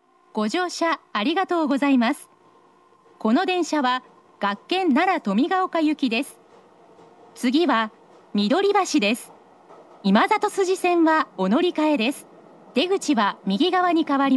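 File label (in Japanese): 大阪メトロ中央線車内放送, 次は緑橋; 次は緑橋(登美ヶ丘行き)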